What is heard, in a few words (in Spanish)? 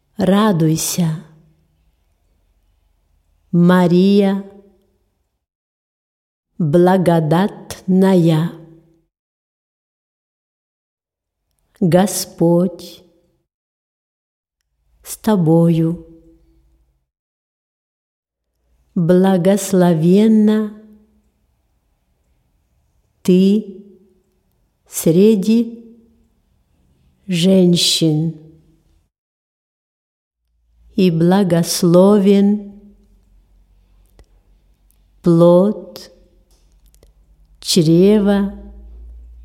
ave maria ruso pausado